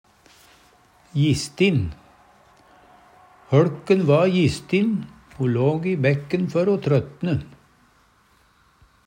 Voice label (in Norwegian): jistin, Numedalsmål; Adjektiv